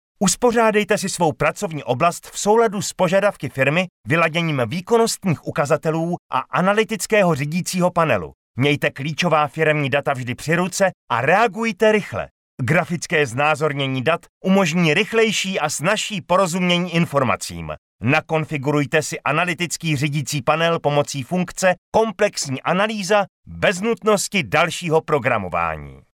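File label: Male Czech e-learning fast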